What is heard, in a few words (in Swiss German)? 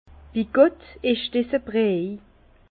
"bigott", Bas Rhin; vin; mon dieu quelle soupe